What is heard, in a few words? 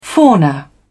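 "fauna", British English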